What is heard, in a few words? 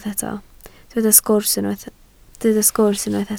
"sgwrs", Welsh